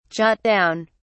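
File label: "jot down", British English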